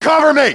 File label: backup, grunt; nimble grunt